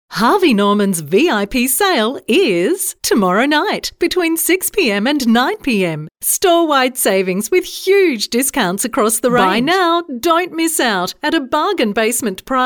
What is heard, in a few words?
Hard Sell